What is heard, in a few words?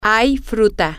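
there is fruit, Spanish, female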